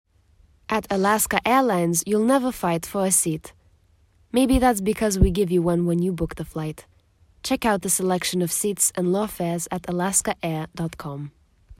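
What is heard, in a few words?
Lithuanian Accented English; voice demos; GoLocalise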